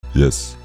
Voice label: yessssss african